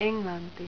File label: "englanti", Finnish